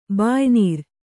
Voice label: "ಬಾಯಿನೀರು", Kannada